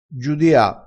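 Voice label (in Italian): "giudia", vocabolario dialettale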